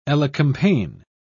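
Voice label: "elecampane", British English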